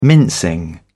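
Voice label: "mincing", British English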